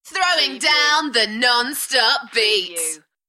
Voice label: UK female, Throwing down the non-stop beats, Enthusiastic; beanstalk audio